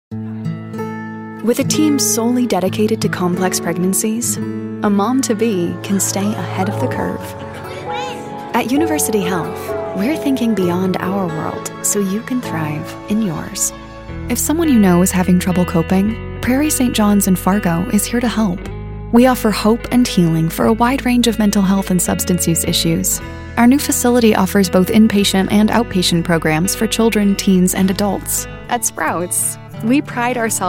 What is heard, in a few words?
warm compassionate comforting; female voice; commercials, corporate narration